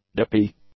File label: "dippy", english; adjectives